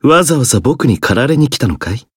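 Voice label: Voice encounter, Japanese; zeviro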